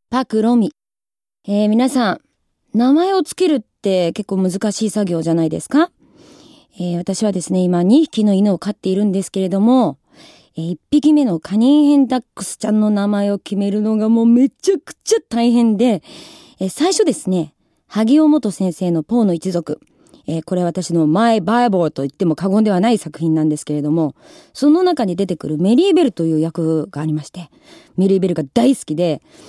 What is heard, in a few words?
freetalk, female voice